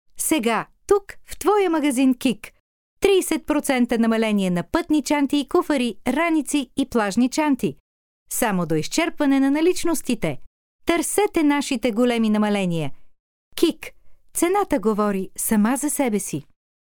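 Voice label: Bulgarian, female, commercial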